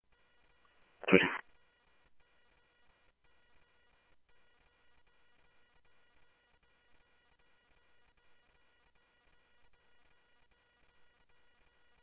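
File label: Mesa Police Department, scanner audio; MESA PD 1 Fiesta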